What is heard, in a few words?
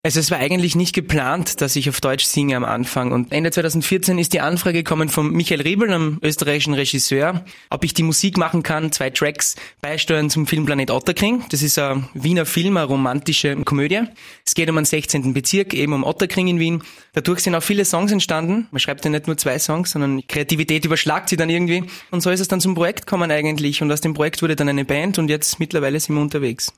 interview; du hast vorher auf englisch gesungen jetzt deutsch wie kam es dazu